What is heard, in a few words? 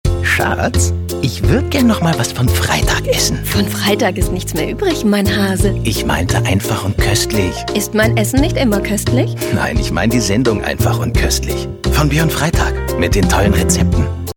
radiospot